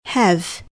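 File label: "have", English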